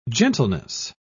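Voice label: "gentleness", British English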